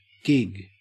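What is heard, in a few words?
"gig", Australian English; pronunciations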